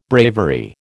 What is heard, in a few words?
"bravery", American